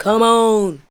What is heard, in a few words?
ADLIBS 90; Ueberschall; Jam Box